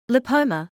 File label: "lipoma", medical English